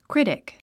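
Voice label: "critic", English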